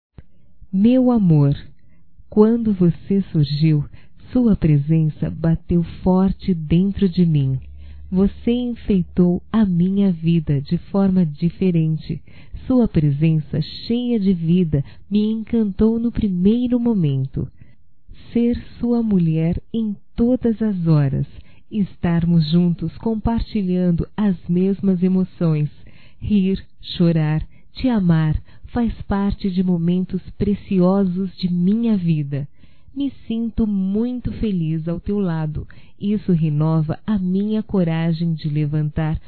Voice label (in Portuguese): TE AMO(F), fem; Amor; Telemensagens 2017